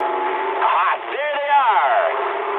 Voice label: eng enemy detected mood med, speech eng